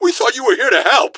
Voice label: here to help, gasmask; scmod